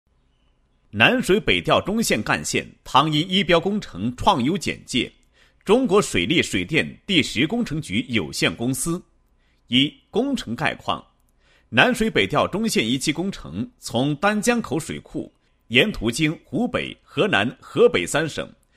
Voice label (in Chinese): 男, 项目创优, 解说; 汤阴I标创优